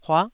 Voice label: "huah9", Taiwanese syllable

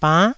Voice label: "bann9", taiwanese

